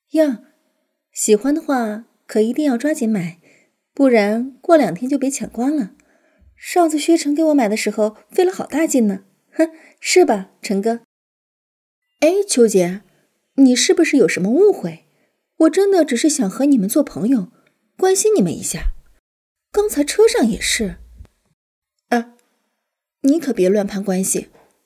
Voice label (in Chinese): 女; 快穿之女配今天翻车了吗女李秀秀